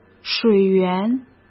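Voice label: "水源", Mandarin Chinese; hsk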